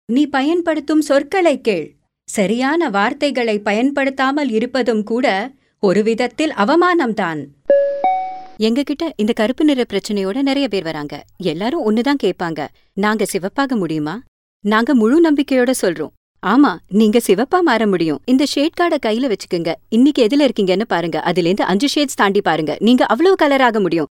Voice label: Tamil Female